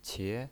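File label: "qie" second tone, pinyin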